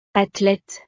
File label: "athlète", francés; profesiones